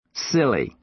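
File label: "silly", American English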